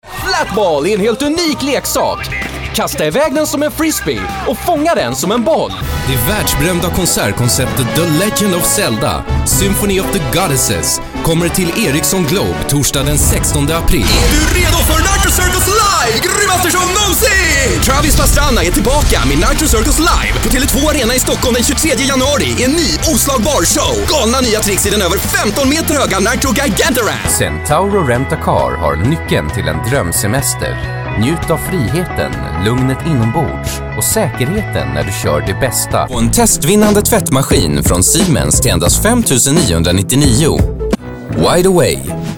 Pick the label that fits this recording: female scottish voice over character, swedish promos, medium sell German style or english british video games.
swedish promos